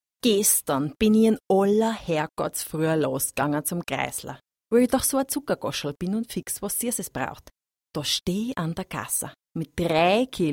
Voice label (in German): Dialekt Wienerisch